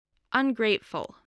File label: "ungrateful", English spelling voice